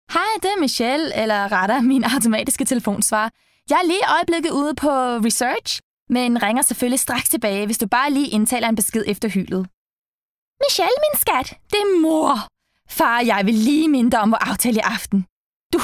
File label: Danish Cartoon voices